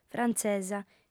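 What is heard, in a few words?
"frantzesa", Sardinian, female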